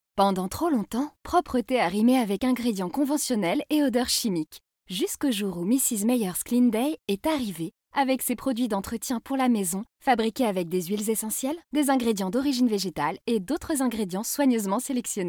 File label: VOICE FRENCH; SC JOHNSON